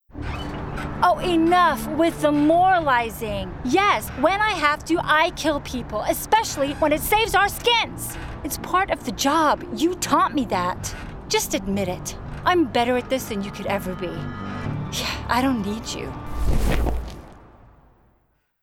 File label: Gaming Thief US